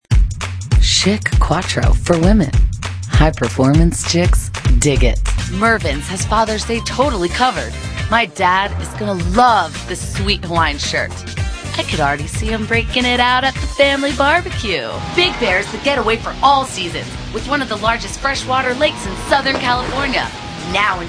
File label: female, late20s mid30s contemp